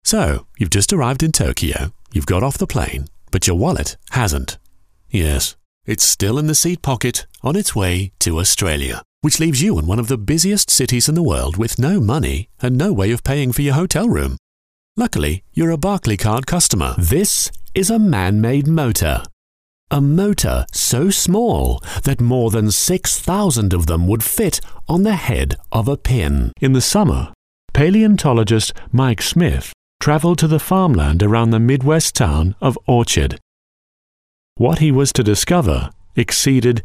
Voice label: Corporate, UK

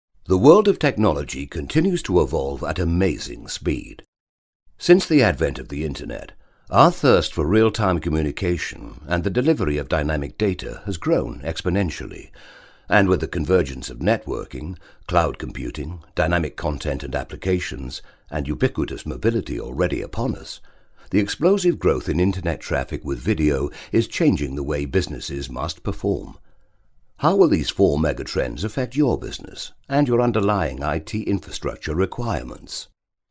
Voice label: Corporate, UK; Voice-over demos

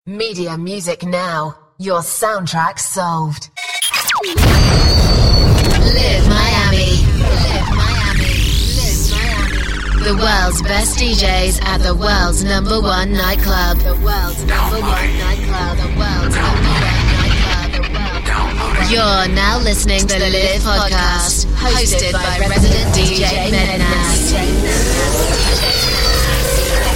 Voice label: DJ Intro; Voice-over demos

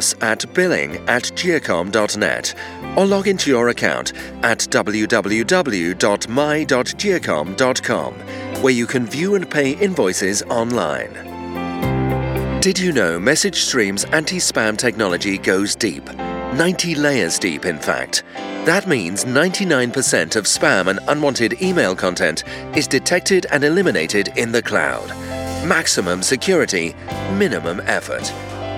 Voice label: On Hold; Voice-over demos; on hold voices

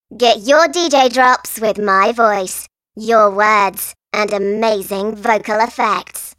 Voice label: Helium; Voice-over demos; DJ Imaging; drops; MediaMusicNow

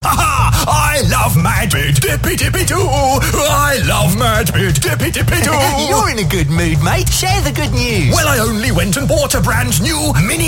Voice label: Adverts, Voice-over; Voice-over demos; TV Radio Advert

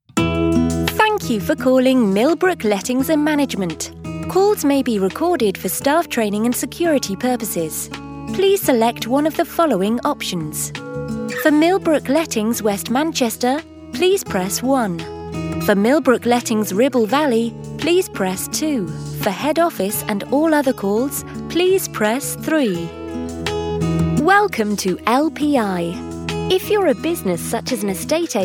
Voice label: On Hold; Voice-over demos; on hold voices

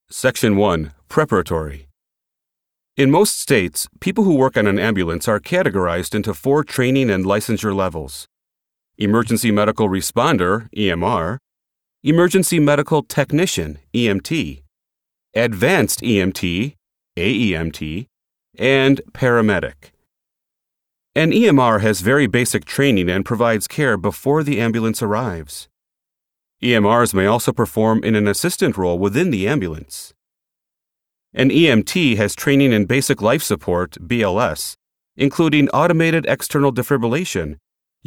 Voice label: audio books, American; USA

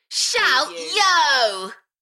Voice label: UK female, Enthusiastic, DJ vocals; Shout yo; beanstalk audio

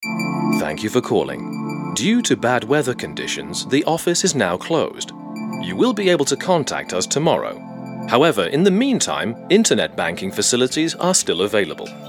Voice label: On Hold; Voice-over demos; on hold voices

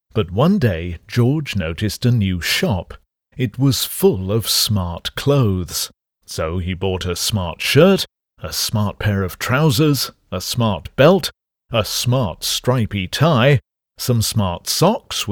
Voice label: Audio books, Voice over demos, EN; England

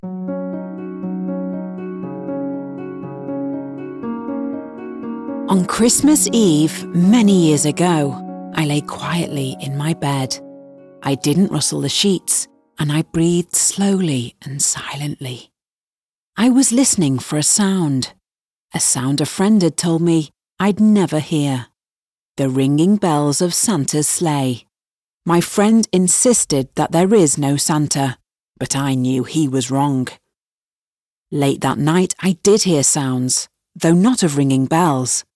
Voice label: audiobook; Voice-over

